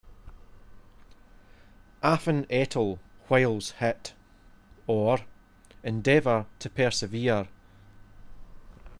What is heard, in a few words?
"affen ettle", Scots